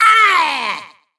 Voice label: Troll VO Pain; Skylanders Cloud Patrol; Arcade, iOS, Mobile

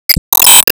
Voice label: Emo Greet

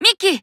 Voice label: Emo Call Name

